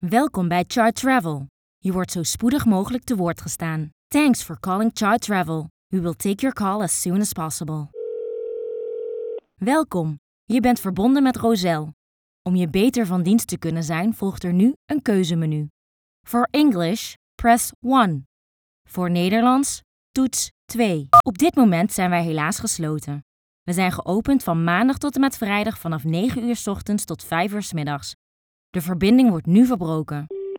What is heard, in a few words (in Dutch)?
Voicemail